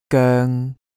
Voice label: "goeng1", cantonese